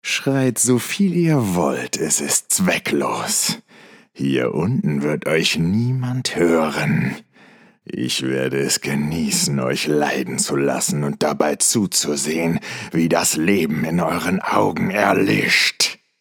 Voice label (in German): Bösewicht